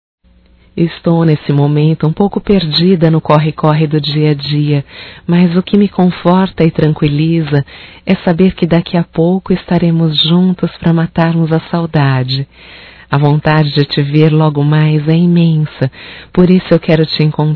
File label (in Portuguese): Romântico Feminino